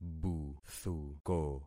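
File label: "buthuko", concatenated syllables; Affixing experiment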